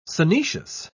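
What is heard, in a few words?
"synoecious", British English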